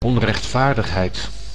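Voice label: "onrechtvaardigheid", Dutch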